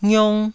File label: "ngiong1", taiwanese syllables